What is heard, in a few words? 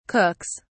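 "cooks", British English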